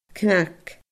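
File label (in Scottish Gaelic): "cnag", Scottish Gaelic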